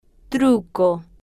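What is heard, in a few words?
"truco", Spanish, female, slow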